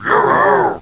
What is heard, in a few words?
annoyed; ships